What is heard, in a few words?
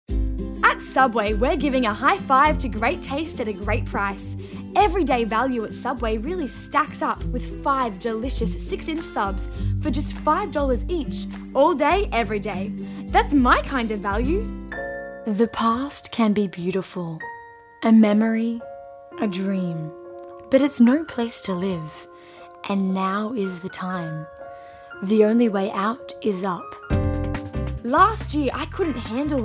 Voice Over